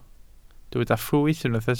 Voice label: "ffrwyth", Welsh